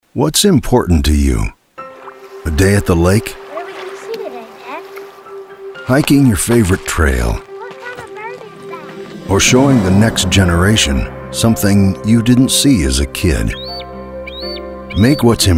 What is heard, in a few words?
Soft Spoken